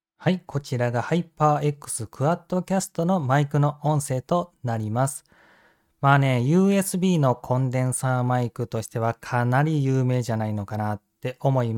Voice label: at2035 audio technica microphone

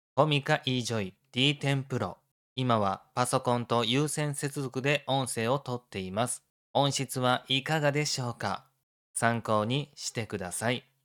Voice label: wireless dynamic microphone; comica

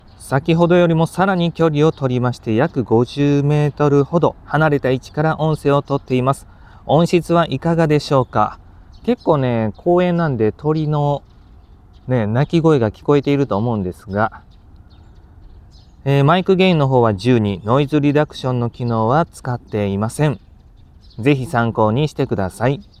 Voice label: maono wireless microphone

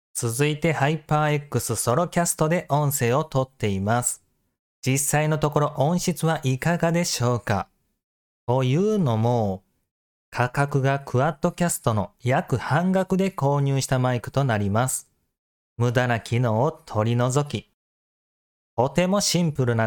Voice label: hyperx quadcast solo; hyperx quadcast solo duo

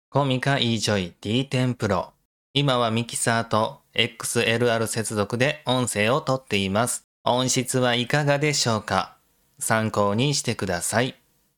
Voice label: wireless dynamic microphone; comica

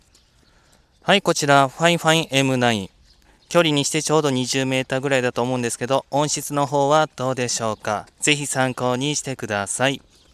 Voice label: fifine m9 microphone

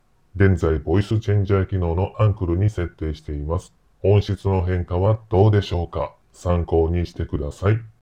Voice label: maono wireless microphone

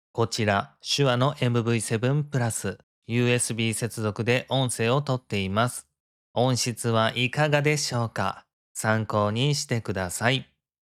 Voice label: shure mv7

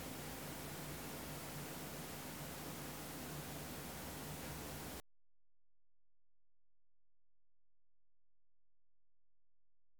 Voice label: maono g1 neo

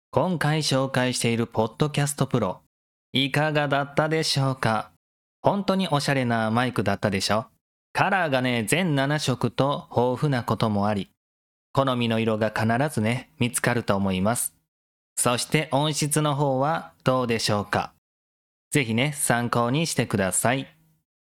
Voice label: microphone podcast

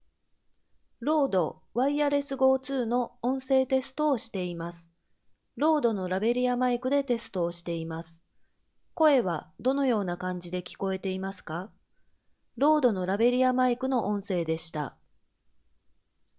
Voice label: microphone rode wireless go2; microphone-rode-wireless-go2-review

